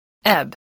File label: "ebb", English